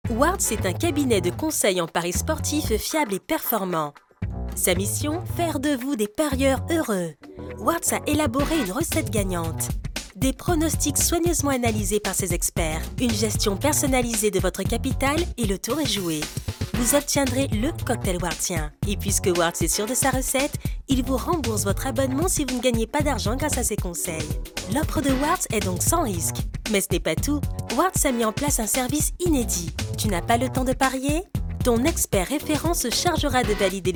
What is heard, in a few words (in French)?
dynamique explicatif rassurant promo; Wartz 2.0; le renouveau des paris sportifs; Motion design